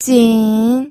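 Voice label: "jin3", Mandarin Chinese third tone; pinyin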